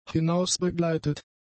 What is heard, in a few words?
"hinausbegleitet", German; adjectives